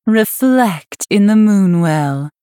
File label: Female Night Elf